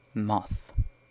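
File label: "moth", British English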